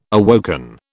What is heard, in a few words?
"awoken", British